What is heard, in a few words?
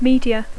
"media", English